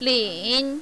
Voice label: "lin3", mandarin, female

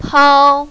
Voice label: "pao1", mandarin, female

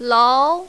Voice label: "lao2", mandarin, female

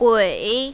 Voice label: "gui3", mandarin, female

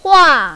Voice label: "hua4", mandarin, female

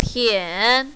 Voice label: "tian3", mandarin, female

